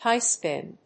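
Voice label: "high-spin", English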